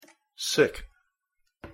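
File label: "sick" English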